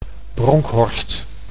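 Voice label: "Bronckhorst", Dutch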